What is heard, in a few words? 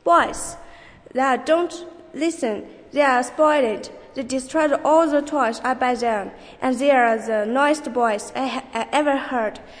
Noise, pronunciation; Noise (B)